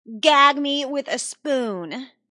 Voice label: Tn Girl Sys Gag Me Sp, Teen Girl Says Gag Me With The Spoon; Talking